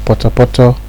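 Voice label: "potopoto", Nigerian Pidgin, male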